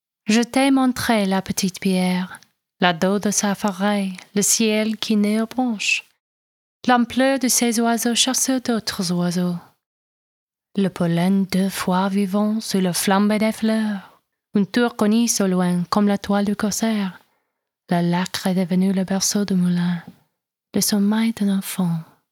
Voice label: French poem; Les Parages D'Alsace; René Char